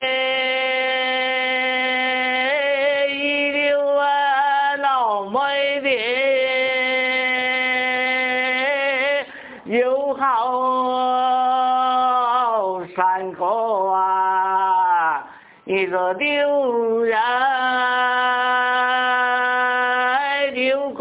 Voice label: longchuan, hakka accent